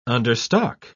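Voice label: "understock", English, British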